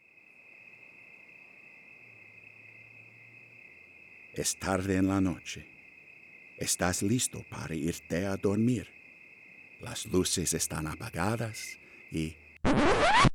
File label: Spanish Language; Omnivox